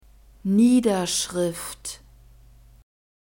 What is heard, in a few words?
"niederschrift", german